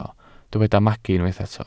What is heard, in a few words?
"magu", Welsh